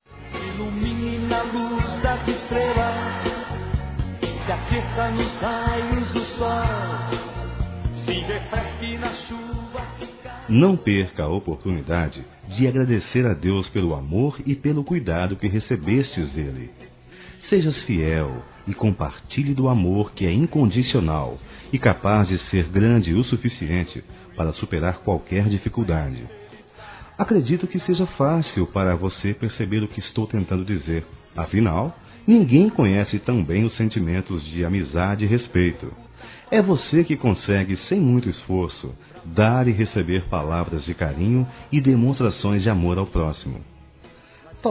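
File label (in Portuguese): religiosa, masculino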